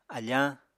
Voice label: "afañ", apatani